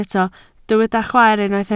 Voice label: "chwaer", Welsh